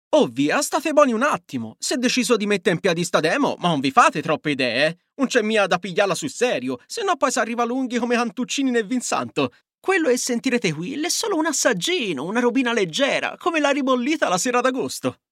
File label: male, Italian, with Tuscan accent; voices